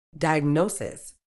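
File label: "diagnosis", British English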